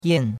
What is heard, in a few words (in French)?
"yan4", chinois; Cours de Chinois